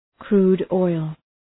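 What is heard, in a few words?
"crude oil", English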